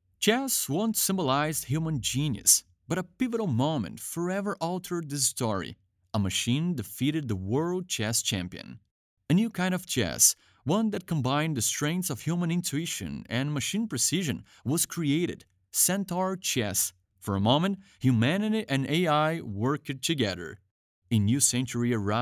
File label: English commercial